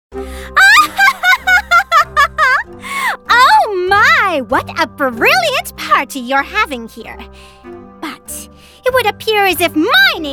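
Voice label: character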